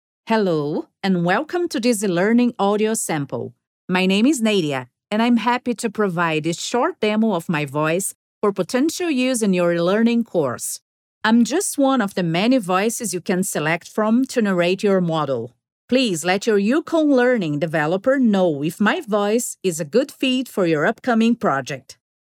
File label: Formal, Brazilian accent, e-learn